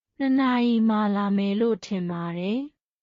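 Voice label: Myanmar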